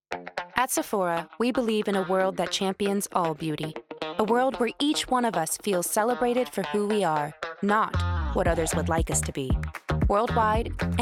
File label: Commercial; VOICEFOX